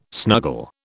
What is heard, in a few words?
"snuggle", British